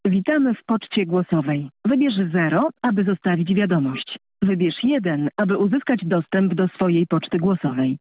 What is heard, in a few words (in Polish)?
Poczta głosowa gdy abonent niedostępny